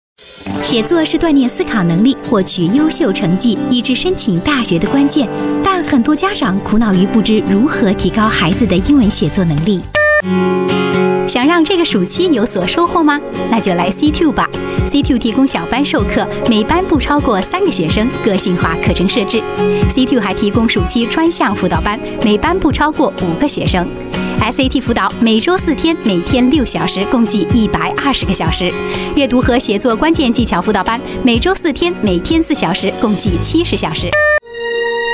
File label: chinese voice over female commercial